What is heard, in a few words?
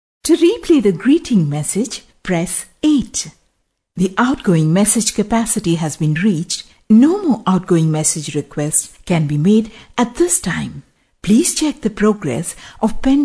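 English Indian Female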